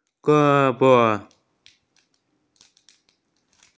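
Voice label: "arm", Mandarin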